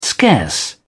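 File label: "scarce", British English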